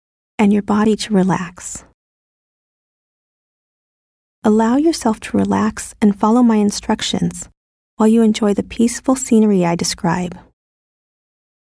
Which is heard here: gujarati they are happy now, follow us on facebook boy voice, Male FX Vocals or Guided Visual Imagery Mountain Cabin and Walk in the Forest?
Guided Visual Imagery Mountain Cabin and Walk in the Forest